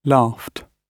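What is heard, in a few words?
"laughed", British English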